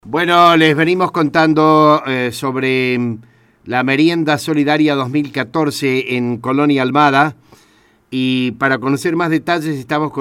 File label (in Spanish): REPORTAJE